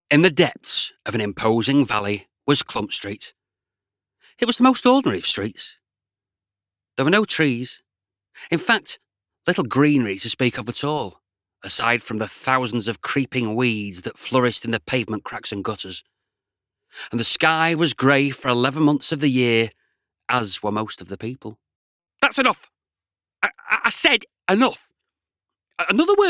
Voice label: Audiobooks